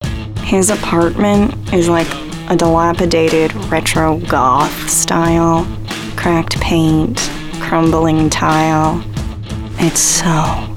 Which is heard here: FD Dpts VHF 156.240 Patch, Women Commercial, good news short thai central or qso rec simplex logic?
Women Commercial